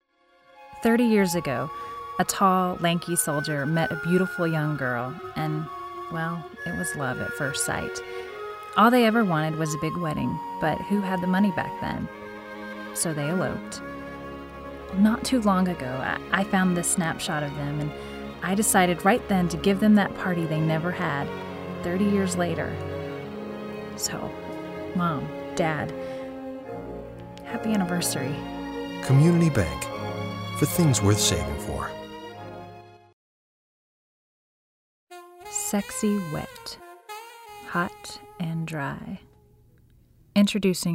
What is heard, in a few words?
Commercial